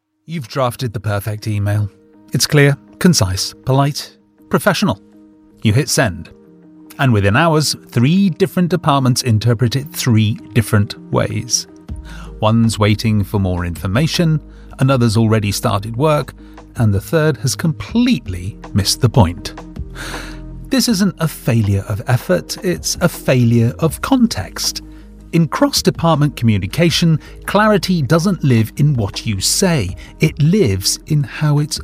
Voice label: corporate